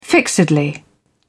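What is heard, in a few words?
"fixedly", British English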